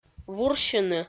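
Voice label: "вурсьыны", Komi, female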